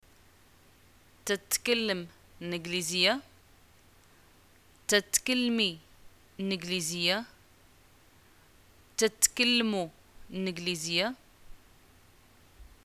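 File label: do you speak English, Moroccan arabic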